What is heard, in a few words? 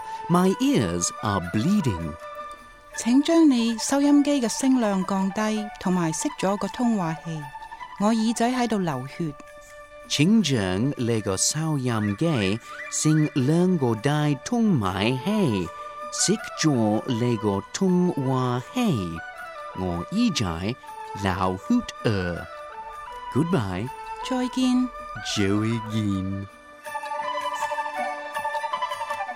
Cantonese Taking a Taxi; doubletake